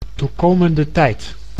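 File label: "toekomende tijd", Dutch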